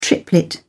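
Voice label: "triplet", British English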